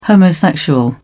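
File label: "homosexual", British English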